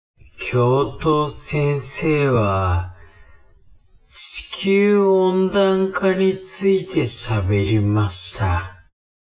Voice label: man